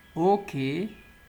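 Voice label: "okay", Nigerian Pidgin, male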